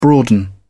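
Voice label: "broaden", British English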